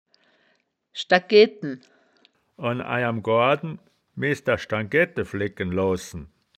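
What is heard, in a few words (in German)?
"schda gedten", wittlicher woerterbuch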